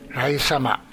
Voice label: "raisama", minamiyamagata